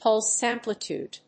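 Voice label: "pulse amplitude", English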